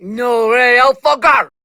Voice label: Attack, Grineer Queens Guards, Battle Barks, en; Warframe

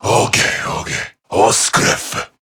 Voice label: Patrol Corrupted Grineer Male

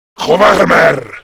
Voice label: Cover Me, Grineer Male; Warframe; Battle Barks

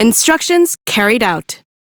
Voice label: Crew Task Done, female; Warframe; Railjack; Cephalon Suda

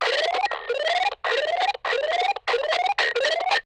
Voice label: Enemy Alarm, Dialog Barks Battle; Warframe; Lasrian

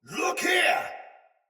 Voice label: See Player Shout Soldier Sword Agent Male; Battle; Sinecure Melee

